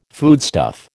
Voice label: "foodstuff", American